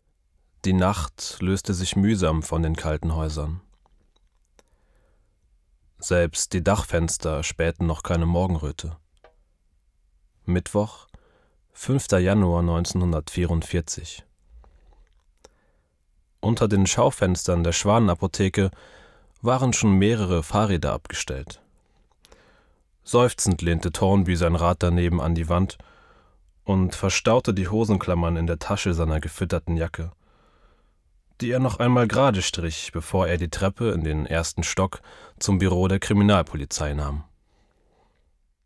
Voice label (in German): hoerbuch